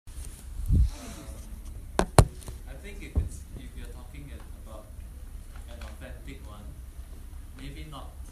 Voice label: Singlish Lecture

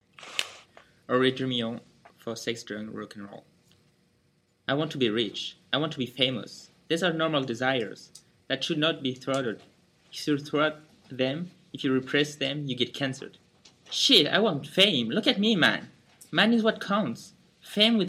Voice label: French WM Age 25

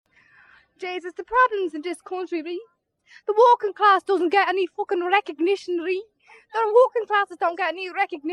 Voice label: Ireland Ballimorn Teen Female Monologue

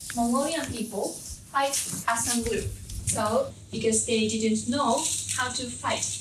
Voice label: Japanese Tokyo; Samurai Museum

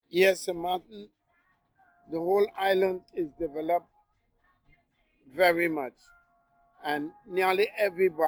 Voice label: Caribbean St Martin Male 70s

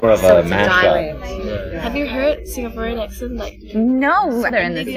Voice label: Singlish

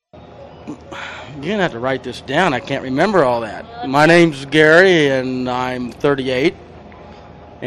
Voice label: Western Montana White Male Age 38

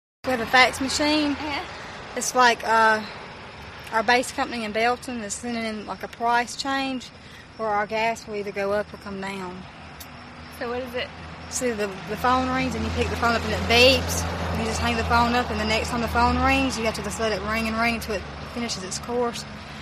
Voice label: South Carolina White Female Age 19

